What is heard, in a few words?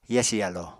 "yasi yalo", Apatani